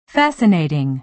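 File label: "fascinating", American English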